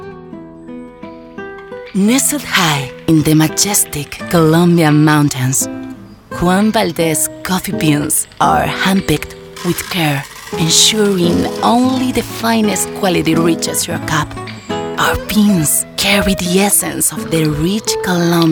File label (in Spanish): tranquilo relajante